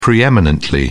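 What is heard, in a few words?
"pre-eminently", English, British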